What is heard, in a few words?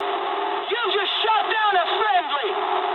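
English player killed ally mood med; War Thunder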